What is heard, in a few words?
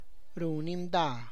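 casts a shadow, Tlacochahuaya Zapotec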